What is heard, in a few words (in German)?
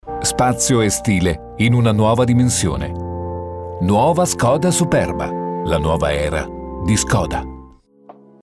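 Werbung, Italian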